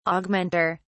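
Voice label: "augmenter", British English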